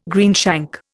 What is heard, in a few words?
"greenshank", Indian